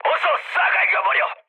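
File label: Korean attack position mood med, speech; War Thunder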